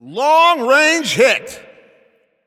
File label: Announcer Long Hit To Other Side